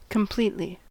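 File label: "completely", US English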